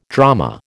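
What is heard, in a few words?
"drama", American English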